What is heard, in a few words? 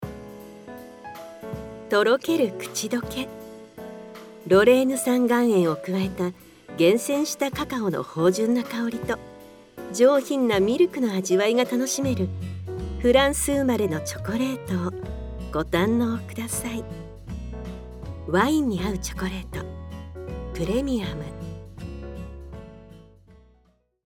Elegant, Sophisticated & Smooth